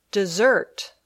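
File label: "dessert", English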